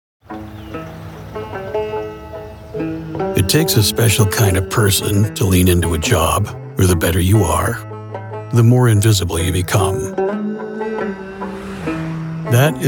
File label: gritty voice over; Greater Industries